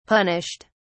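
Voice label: "punished", British English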